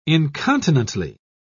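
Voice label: "incontinently", English, British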